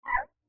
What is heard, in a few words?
"Mu" muffled